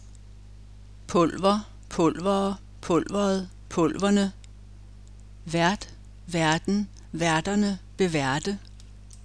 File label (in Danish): "pulver", fonetik; 11.1.2: [ˈpʰɔlʔvɐ ˈpʰɔlʔvɐɐ ˈpʰɔlʔvɐð̩ ˈpʰɔlʔvɐnə]; fonetik og fonologi